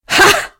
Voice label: Female Constable combat sound